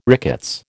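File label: "rickets", American English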